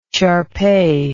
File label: "sharpei", English